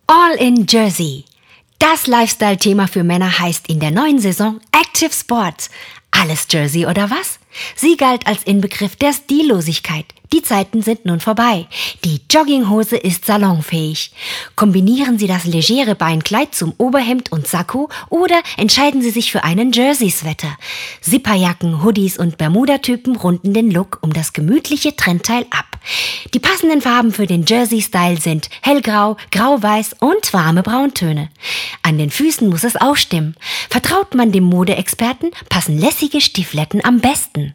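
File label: Female English German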